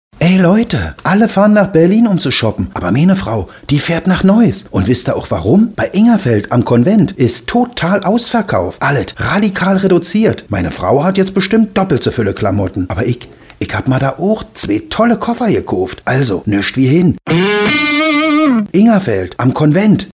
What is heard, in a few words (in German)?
Funkspot Totalausverkauf; Ingerfeld